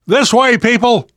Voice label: world hospital, namvet, survivor voice